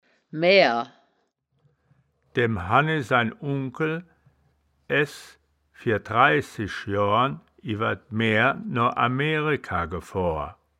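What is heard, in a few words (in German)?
"maeaer", Wittlicher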